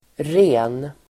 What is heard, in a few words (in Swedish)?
"ren", Swedish